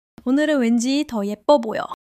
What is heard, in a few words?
joy; monologue